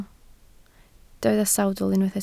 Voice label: "sawdl", Welsh

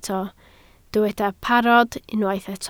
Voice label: "parod", Welsh

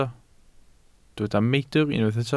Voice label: "meidr", Welsh

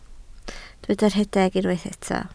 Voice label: "rhedeg", Welsh

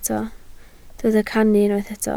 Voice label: "cannu", Welsh

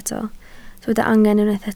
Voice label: "angen", Welsh

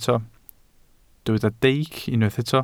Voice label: "deic", welsh

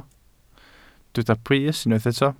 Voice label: "brys", Welsh

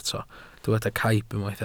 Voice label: "caib", Welsh

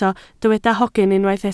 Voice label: "hogyn", Welsh

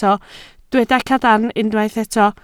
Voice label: "cadarn", Welsh

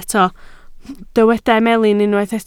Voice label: "melin", Welsh

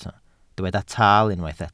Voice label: "taal", Welsh

